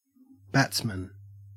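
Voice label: "batsman", Australian English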